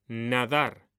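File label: "nadar", Spanish; mekanta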